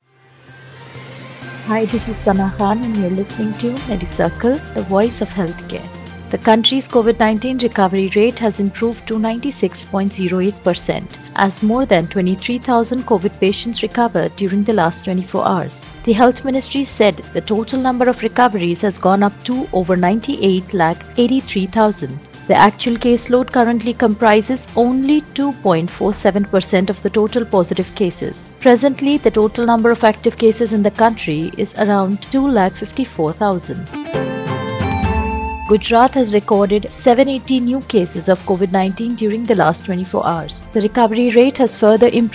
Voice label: english news